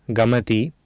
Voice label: "gamati", Sanskrit